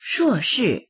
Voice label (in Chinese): "shuo4shi4", voices; 硕士; 修士、マスター